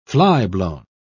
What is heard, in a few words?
"flyblown", pronounce